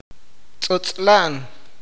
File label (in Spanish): "chupar", awakateko